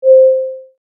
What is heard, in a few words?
airplane announcement